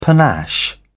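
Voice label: "panache", British English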